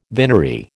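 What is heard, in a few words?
"vinery", American English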